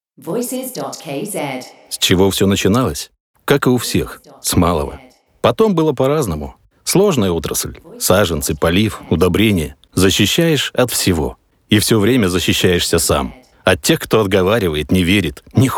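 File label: Russian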